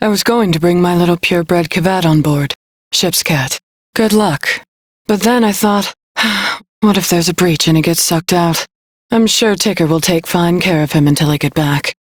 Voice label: Crew Idle, Female; Dialog; en